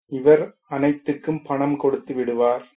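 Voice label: pay, tamil, male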